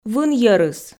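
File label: "вынйӧрыс", Komi, female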